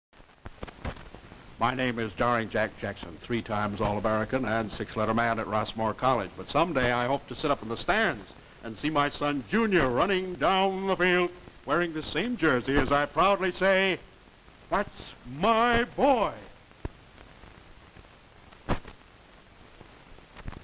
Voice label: Television Public Service Announcements and Program Promos